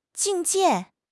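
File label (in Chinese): "境界", Chinese; HSK6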